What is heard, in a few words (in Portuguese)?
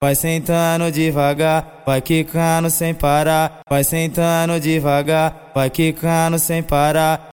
acapella